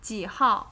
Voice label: "Jihao", Chinese